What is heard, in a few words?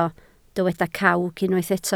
"cawg", Welsh